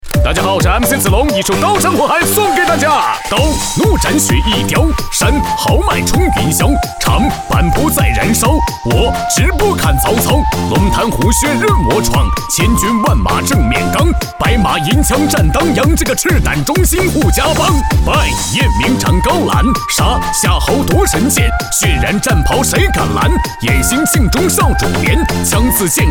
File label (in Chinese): 促销叫卖喊麦